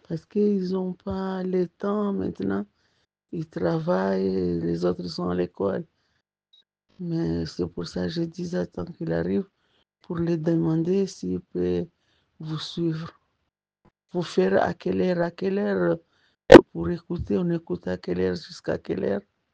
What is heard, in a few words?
voice message